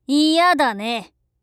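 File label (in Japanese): "いやだね", Japanese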